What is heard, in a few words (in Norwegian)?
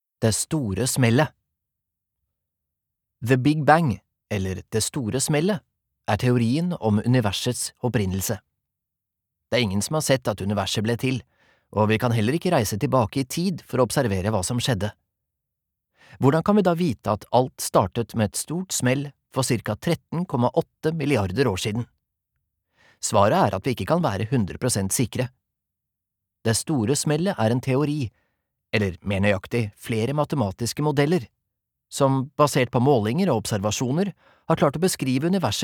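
fagbok, Norwegian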